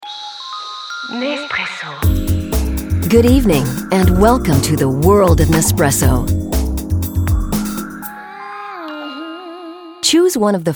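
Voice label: US telephone, englisch; telefon; sprecher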